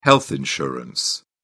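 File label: "health insurance", British English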